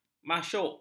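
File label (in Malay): "maksyuk", Malay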